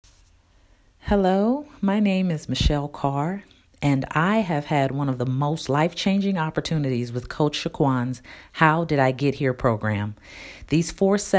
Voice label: Voice Testimony